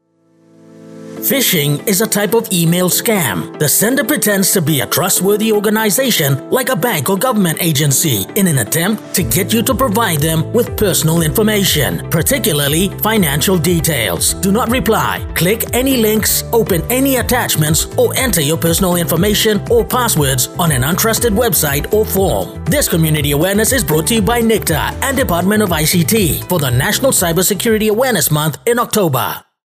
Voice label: Phising English; See Yourself in Cyber